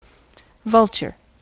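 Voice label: "vulture", American English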